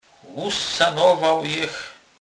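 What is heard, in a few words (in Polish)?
"jech", Polish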